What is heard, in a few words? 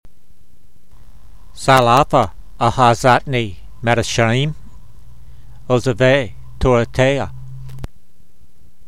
voice, hebrew language; Psalm 119:53; zal'a'fa a'hazat'nee mayr(d)esha'eem ozevay torateyha